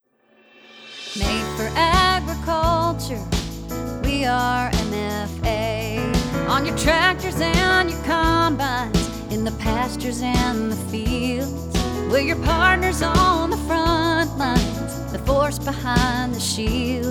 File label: FEMALE HARMONIC